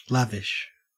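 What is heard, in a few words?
"lavish", Australian English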